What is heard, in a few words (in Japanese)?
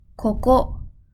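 "ここ", Japanese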